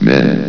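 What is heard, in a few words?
"men", male; valve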